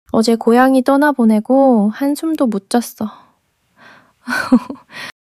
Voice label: crying, monologue

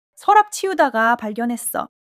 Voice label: joy

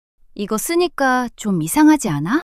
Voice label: shy; monologue

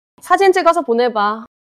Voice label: neutral, monologue

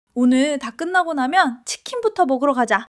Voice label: excitement; monologue

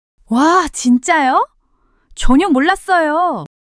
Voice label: surprise, monologue

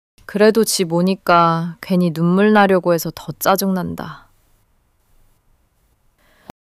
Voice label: sadness

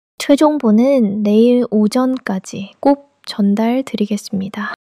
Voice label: neutral

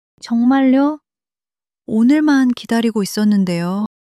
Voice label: sadness; monologue

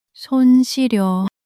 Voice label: sadness, monologue

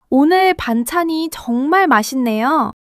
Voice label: joy; monologue

